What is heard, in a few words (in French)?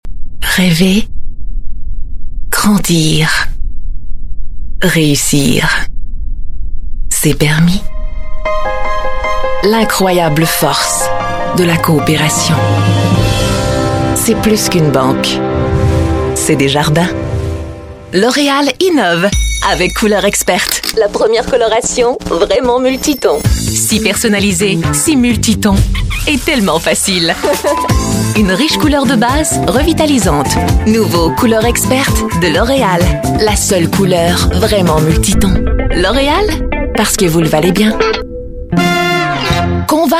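French canadian, female, commercial; voice demos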